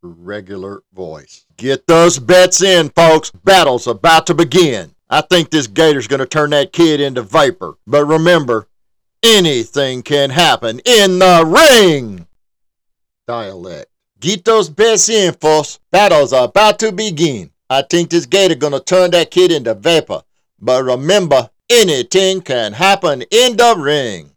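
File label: Fight - Ring Announcer [normal and dialect]; Animated Short (30 Seconds)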